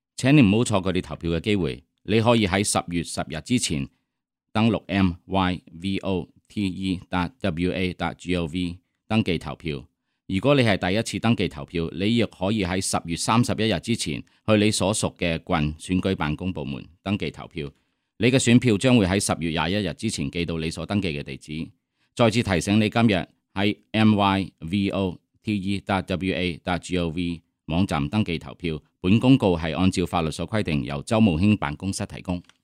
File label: reg deadline chinese